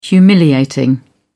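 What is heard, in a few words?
"humiliating", English, British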